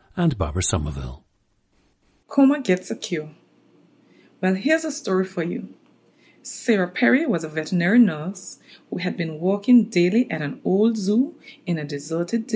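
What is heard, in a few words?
anguilla, dialects of English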